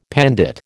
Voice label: "pandit", American

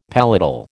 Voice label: "palatal", American

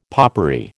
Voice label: "popery", American

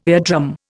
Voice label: "eardrum", Indian

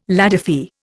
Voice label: "ladyfy", Indian

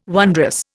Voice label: "wondrous", Indian

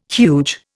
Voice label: "huge", Indian

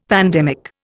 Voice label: "pandemic", Indian; Dictionary

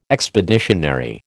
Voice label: "expeditionary", American

